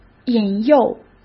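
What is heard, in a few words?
"引诱", Mandarin Chinese; chinese-flashcards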